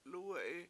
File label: lUUwe7i he sits, Washo